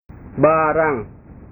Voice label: "barang", bahasa

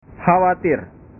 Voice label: "khawatir", bahasa

